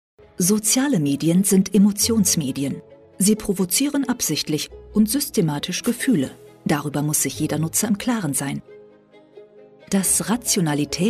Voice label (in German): doku off; Sprecher in Berlin, Bremen, Hamburg, Hannover, Kiel, Lübeck, Lüneburg